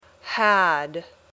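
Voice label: "had", English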